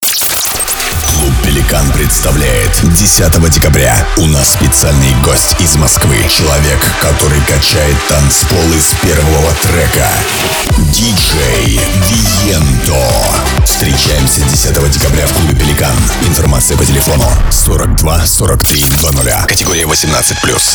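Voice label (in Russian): АУДИО АФИША, Диктор; Пеликан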